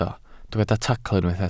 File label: "tacl", Welsh